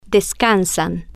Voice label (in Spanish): "des-can-san", Spanish